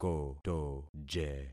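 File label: "kotoje", concatenated syllables; Affixing experiment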